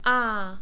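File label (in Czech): "along", Czech, male; long vowels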